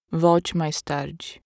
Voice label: error page generic apathetic female brazilian portuguese voiceover